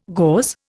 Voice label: "gauze", Indian

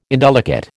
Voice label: "indelicate", American

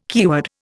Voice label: "keyword", Indian

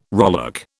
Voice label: "rowlock", British

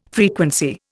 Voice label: "frequency", Indian

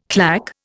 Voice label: "claque", Indian

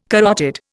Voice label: "carotid", Indian

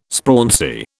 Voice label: "sprauncy", British

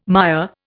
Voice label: "Maya", Indian

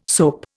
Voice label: "soap", Indian

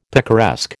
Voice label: "picaresque", American

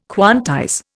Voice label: "quantize", Indian

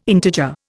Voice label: "integer", Indian

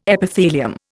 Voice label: "Epithelium", Indian

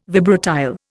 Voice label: "vibratile", Indian

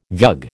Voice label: "vug", American

